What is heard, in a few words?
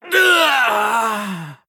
Male Human Death